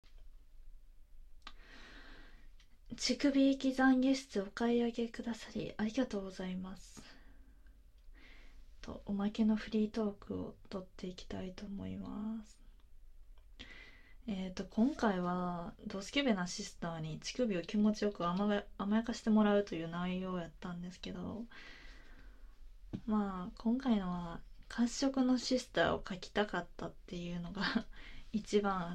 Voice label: フリートーク, ASMR

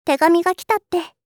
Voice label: 手紙がきたって, システムボイス

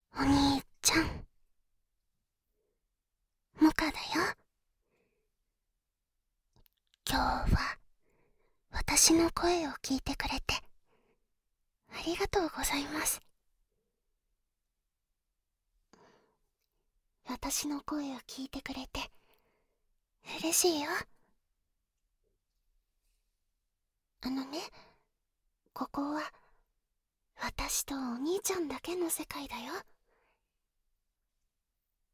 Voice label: 励まし音声「今日もがんばったね」, ASMR

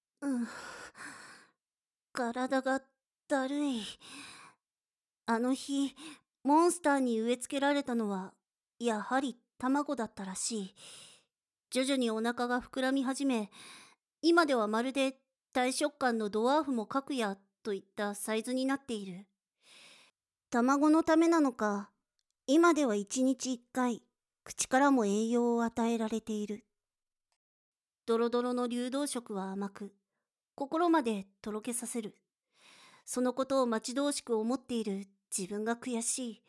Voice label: ボイスのみ; 5章◆卵を生むエルフ

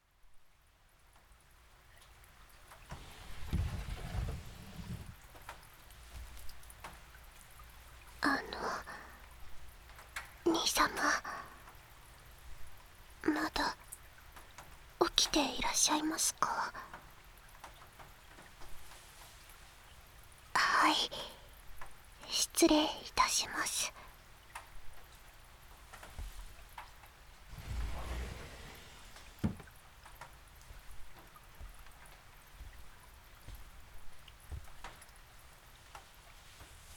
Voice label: 雨降りバージョン, ASMR; 兄様の「何でも言う事聞く券」を貰った田舎の大人しい妹は…

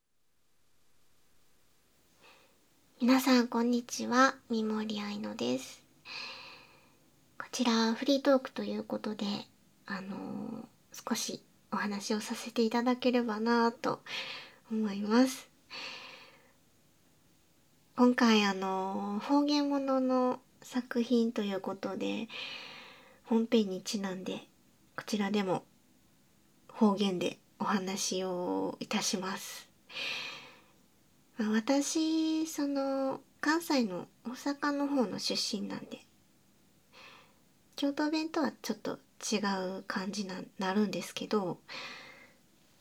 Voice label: フリートーク, ASMR; 雨露ノ巫女

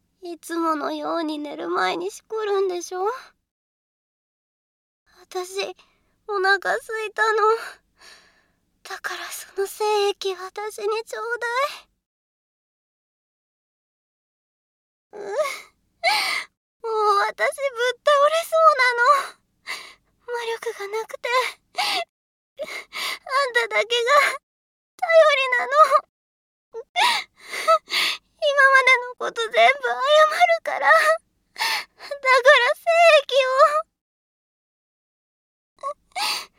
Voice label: 声のみ ノイズ除去なし

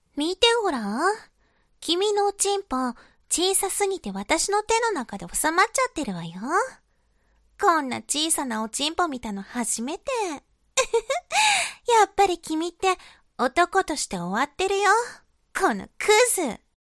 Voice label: 懲罰中音声, ASMR

